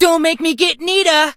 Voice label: hurt vo; leon

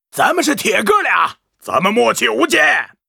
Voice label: pissed, zh; Mortar team

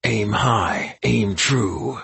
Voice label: dark army archer taunt

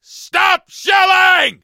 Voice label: hank hurt

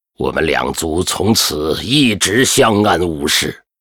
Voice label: pissed, zh

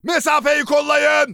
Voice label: neutral

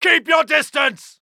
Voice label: uk Neutral

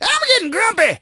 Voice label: hurt

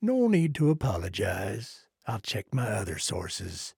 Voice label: trader male like quest declined

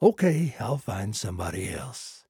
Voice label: trader male like quest declined

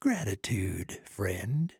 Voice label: trader male like quest complete

